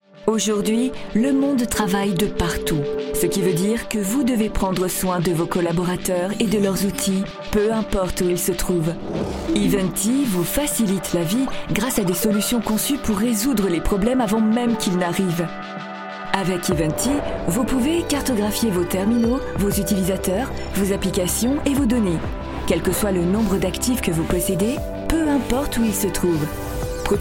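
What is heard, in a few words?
voice over, corporate; Ivanti solutions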